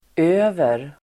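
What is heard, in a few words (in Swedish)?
"över", swedish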